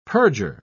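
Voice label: "purger", British English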